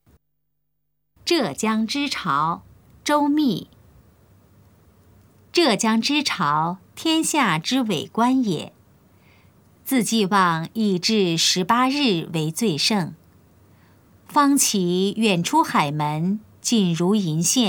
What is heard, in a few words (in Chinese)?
putonghua; 浙江之潮; 普通話